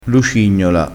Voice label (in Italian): "lucignola", crespinese